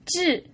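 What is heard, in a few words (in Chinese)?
"zhi", Mandarin Chinese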